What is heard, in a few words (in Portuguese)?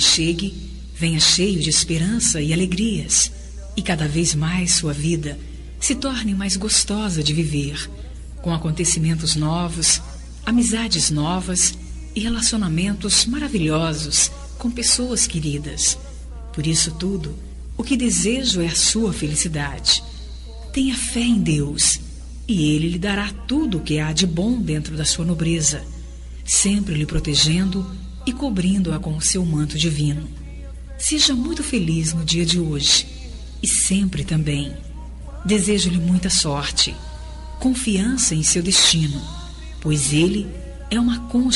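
aniversário amiga female gospel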